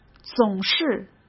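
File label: "总是", Mandarin Chinese; hsk; chinese-flashcards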